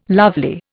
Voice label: "lovely", Indian

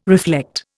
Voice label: "reflect", Indian

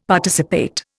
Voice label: "Participate", Indian; Dictionary

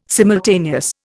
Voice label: "simultaneous", Indian

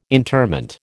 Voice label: "interment", American

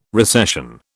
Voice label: "recession", British

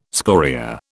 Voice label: "scoria", British

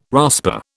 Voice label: "rasper", British

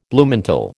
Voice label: "Bluemantle", American

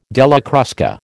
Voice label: "Della Crusca", American

